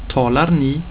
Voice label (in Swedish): "talar ni", Swedish